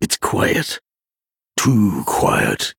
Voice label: Male Dwarf Play